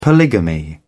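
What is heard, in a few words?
"polygamy", British English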